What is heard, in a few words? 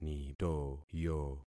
"nitoyo", concat syllables; Affixing experiment